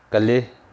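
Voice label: "slowly", English word; kham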